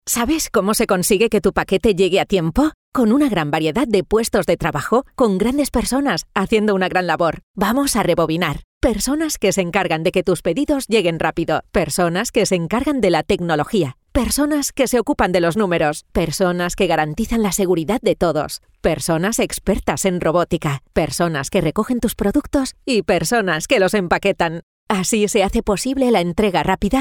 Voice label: spanish corporate videos

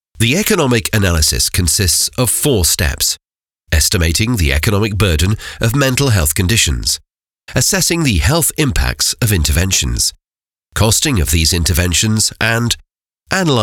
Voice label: English British narration

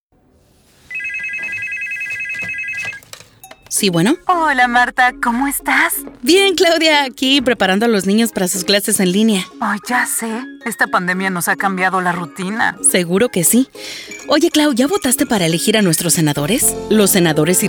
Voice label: spanish latin american political ads

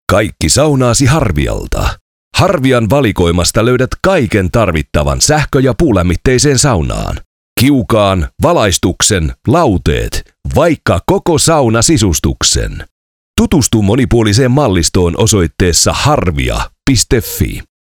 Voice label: finnish commercial

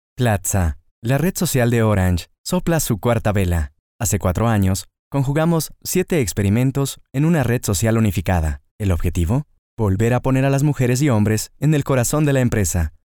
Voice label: Spanish Latin American neutral corporate

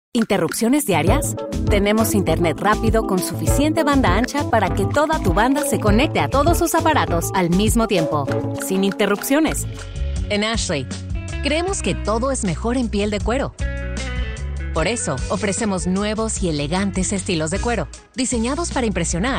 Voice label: english commercial, voice actor

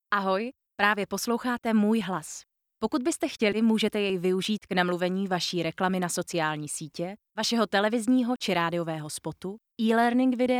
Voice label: czech narration

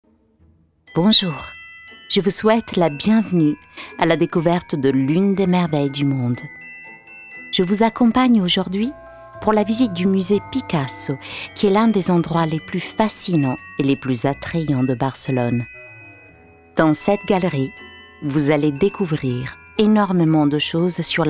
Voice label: french narration

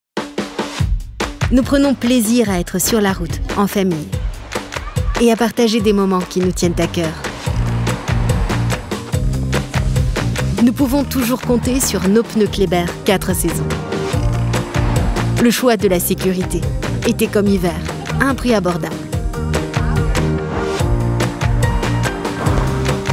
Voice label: french automotive; French voice over actress native from France, neutral accent

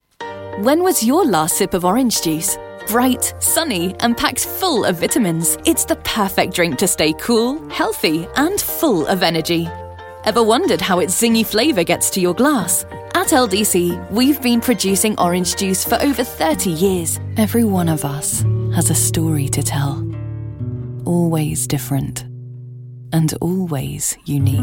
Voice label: english british corporate videos

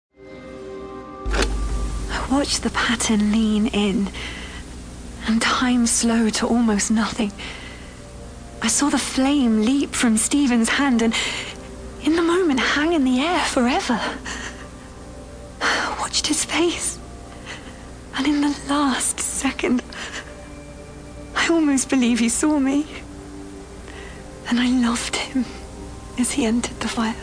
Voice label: english british video games; locutora británica, originaria de Bristol

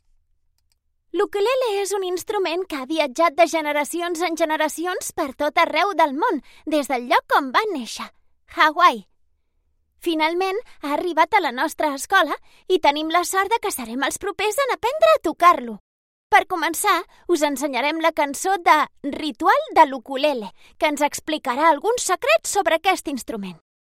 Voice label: catalan; professional Spanish and Catalan voice actor

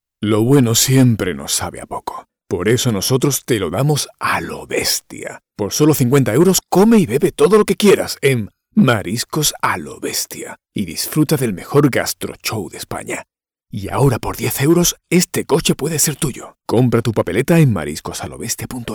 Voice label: spanish promos

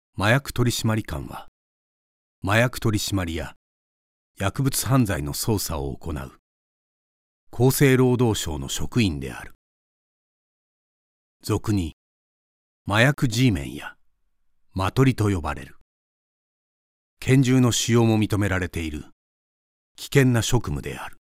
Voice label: Japanese documentaries

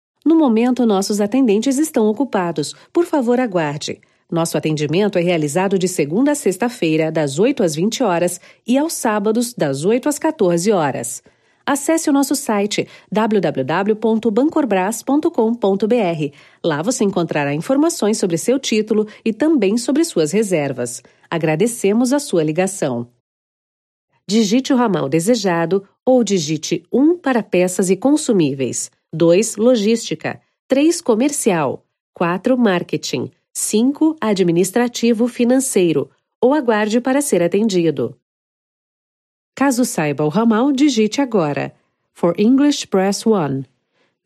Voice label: portuguese brazilian ivr

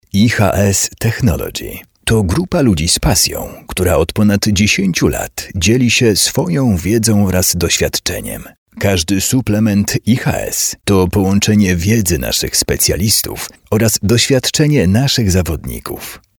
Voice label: polish narration

